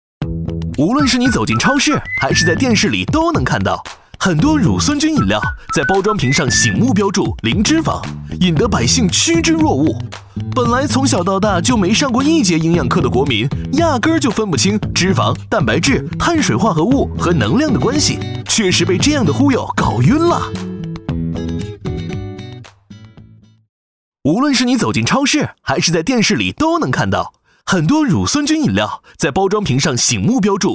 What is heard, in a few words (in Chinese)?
男; 不要被“零脂肪”给骗了; 优质全能